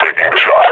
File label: announce attack, combine grunt